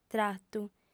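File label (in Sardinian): "tratu", Sardinian, female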